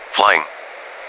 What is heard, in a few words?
"flying", EN